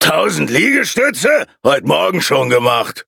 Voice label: loading, de; cookierunwiki

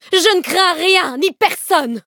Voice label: levelup, fr; Cookie Run

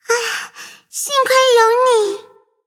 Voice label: levelup, zh-hant

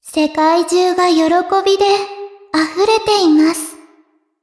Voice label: upgrade, ja; Cookie Run

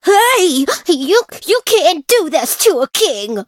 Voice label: defeat, en; cookierunwiki

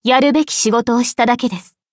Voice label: levelup ja; Cookie Run

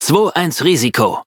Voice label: battle de; cookie run

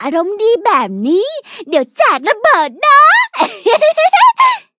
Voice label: level up, th; cookie run

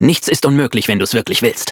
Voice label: loading, de; Cookie Run

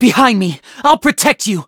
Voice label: battle, en; Cookie Run

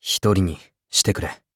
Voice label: upgrade, ja; cookie run

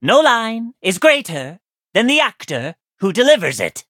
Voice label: loading, en; Cookie Run

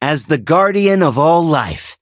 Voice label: victory, en; Cookie Run

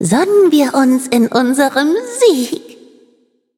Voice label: victory, de; Cookie Run

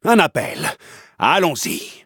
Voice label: levelup fr; cookie run

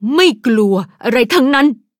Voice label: level up, th; cookierunwiki

